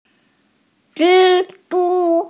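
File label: "zhi1 zhu1", Mandarin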